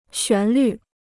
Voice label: "旋律", Chinese